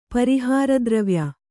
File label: "ಪರಿಹಾರದ್ರವ್ಯ", Kannada; pari hāra dravya; noun